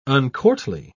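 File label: "uncourtly", British English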